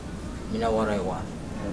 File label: I have a canoe, Mokilese